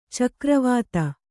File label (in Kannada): "ಚಕ್ರೀವತ್ತು", Kannada